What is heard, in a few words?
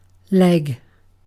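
"leg", British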